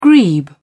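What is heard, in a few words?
"grebe", British English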